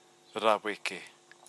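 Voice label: "raweke", Māori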